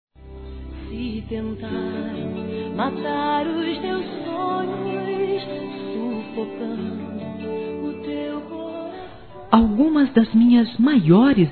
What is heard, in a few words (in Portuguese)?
Telemensagens, Aniversários, Fem; ANIV AMIZADE